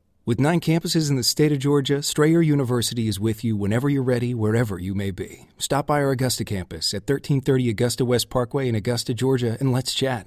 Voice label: Voiceover, Commercial, Men; New York; Commercial Demo

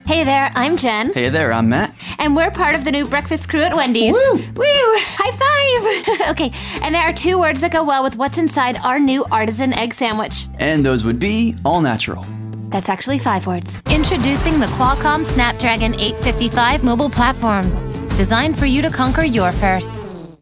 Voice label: Voiceover, Commercial, Women; New York

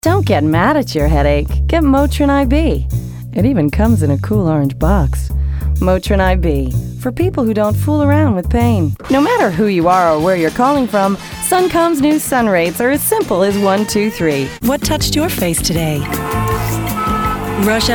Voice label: Voiceover, Commercial, Women; New York

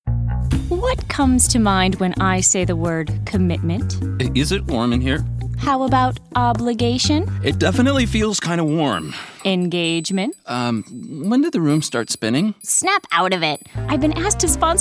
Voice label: Voiceover Commercial Women; New York; Commercial Demo

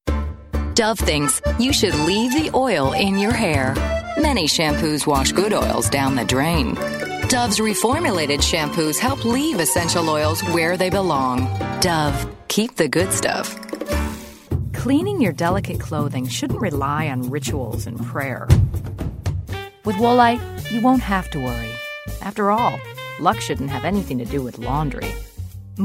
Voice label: Voiceover, Commercial, Women; New York; Commercial Demo

